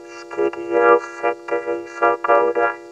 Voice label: vocoder